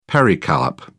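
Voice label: "pericarp", British English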